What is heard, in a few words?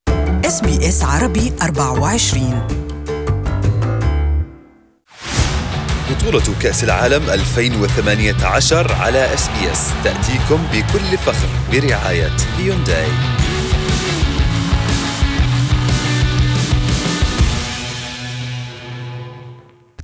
arabic; SBS Arabic; 'This is a miracle' - Mandzukic celebrates Croatia comeback